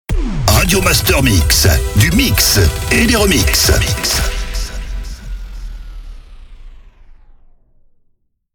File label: Sweeper Radio Master MIX - SPEED; Habillage